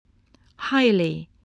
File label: "highly", English, spelling